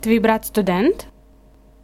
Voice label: dialogue, Ukrainian